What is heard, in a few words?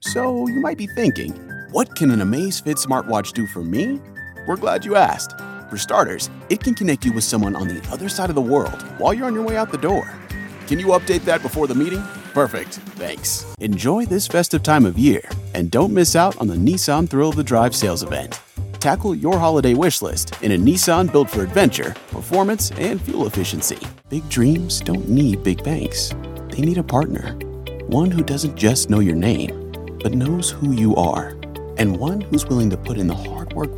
Commercial Demo